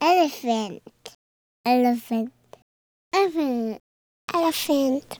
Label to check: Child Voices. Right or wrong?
Right